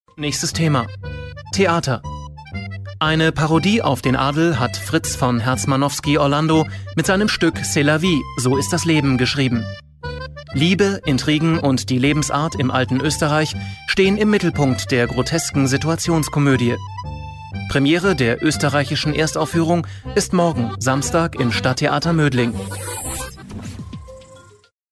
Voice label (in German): Trailer, Sprechproben; Theater Moedling